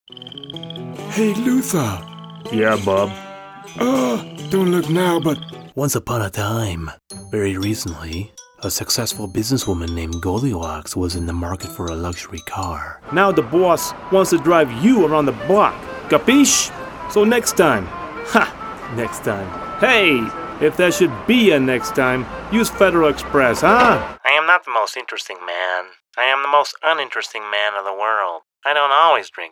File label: character voice